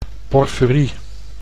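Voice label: "porfurie", Dutch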